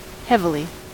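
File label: "heavily", US English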